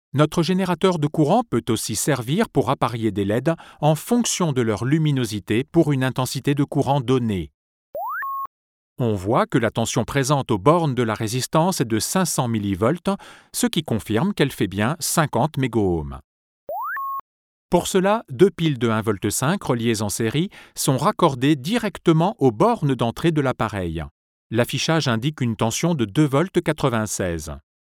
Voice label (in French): voix off; elektortv; current source